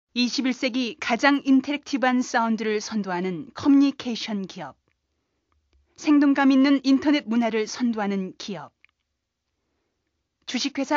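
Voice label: Korean, female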